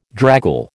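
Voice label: "draggle", American English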